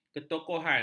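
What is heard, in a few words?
"ketokohan", Malay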